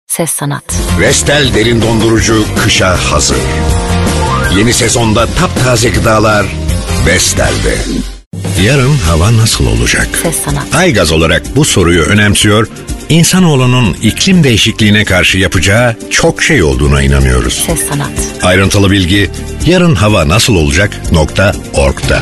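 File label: Turkish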